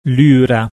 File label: "lyra", Latin